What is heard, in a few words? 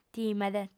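"timet", Sardinian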